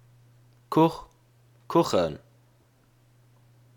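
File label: "Kuch", Luxembourgish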